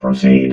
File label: "proceed", robot